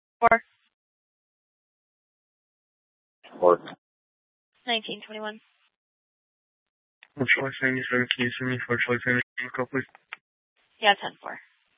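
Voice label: Mesa Police Department Superstition Dispatch, scanner; Mesa PD; 4 Superstition Dispatch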